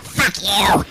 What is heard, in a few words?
fuck you cartoon; Voice; expletives